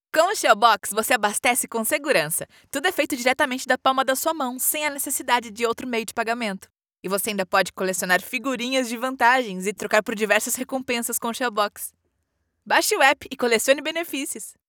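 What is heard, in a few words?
commercial ad young excited no background female brazilian portuguese; voiceover; shell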